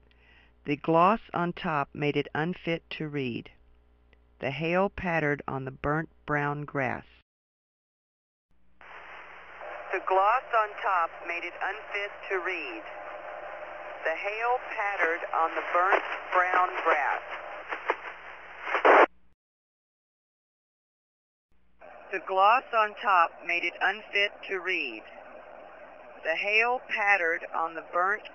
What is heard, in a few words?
P25 locomotive radio audio